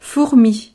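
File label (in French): "fourmi", French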